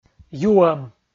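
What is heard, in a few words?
"юӧм", Komi, male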